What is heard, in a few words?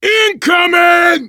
incoming, voice, biker; Left 4 Dead 2; survivor